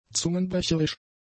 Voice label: "zungenbrecherisch", German; adjectives

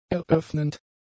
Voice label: "eröffnend", German; adjectives

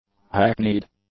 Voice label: "hackneyed", english; adjectives

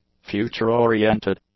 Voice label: "future-oriented", English; adjectives

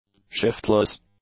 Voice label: "shiftless", English; adjectives

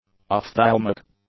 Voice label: "ophthalmic", English; adjectives

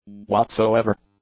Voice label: "whatsoever", English

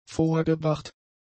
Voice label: "vorgebracht", German; adjectives

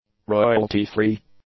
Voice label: "royalty-free", English; adjectives

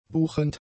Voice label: "buchend", German; adjectives

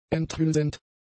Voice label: "enthülsend", German; adjectives

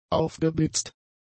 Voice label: "aufgeblitzt", German; adjectives

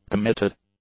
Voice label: "committed", English; adjectives

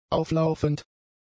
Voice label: "auflaufend", German; adjectives

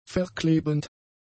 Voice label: "verklebend", German; adjectives

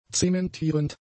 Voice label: "zementierend", German; adjectives

